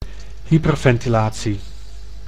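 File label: "hyperventilatie", Dutch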